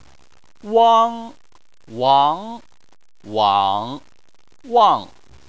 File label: "wang", chinese